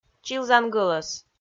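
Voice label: "чилзан гӧлӧс", Komi, female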